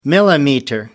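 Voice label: "millimeter", British English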